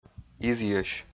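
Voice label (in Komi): "изйӧсь", Komi, male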